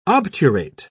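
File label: "obturate", British English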